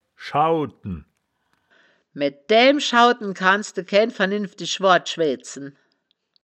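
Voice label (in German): "schauten", Wittlicher Wörterbuch; das wittlicher woerterbuch; woerterbuch vertonung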